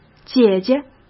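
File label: "姐姐", Mandarin Chinese; hsk; chinese-flashcards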